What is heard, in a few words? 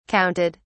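"counted", British English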